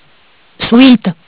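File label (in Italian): "Suite", Italian; Bentel; BLE320; MESSAGGI VOCALI; Audio BGS220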